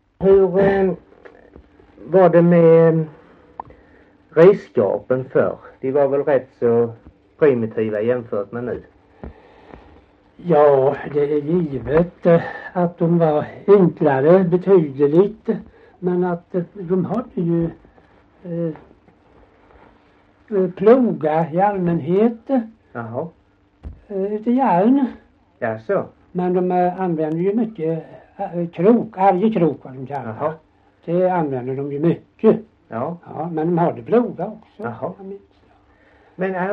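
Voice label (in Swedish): Gällared Halland, Svenska sagor, dialekter; Folkminnen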